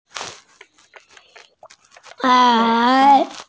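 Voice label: "er3", Mandarin Chinese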